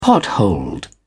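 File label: "potholed", British English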